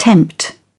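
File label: "tempt", British English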